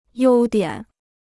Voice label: "优点", Chinese

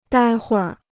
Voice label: "待会儿", Chinese

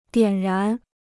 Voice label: "点燃", Chinese

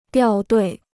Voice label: "掉队", Chinese

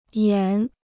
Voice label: "延", Chinese

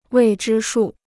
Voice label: "未知数", Chinese

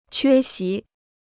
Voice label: "缺席", Chinese

